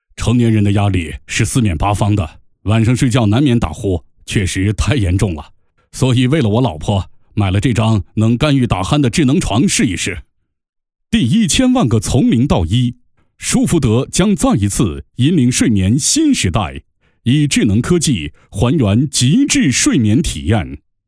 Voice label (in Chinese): 多角色 配音文稿; 麒盛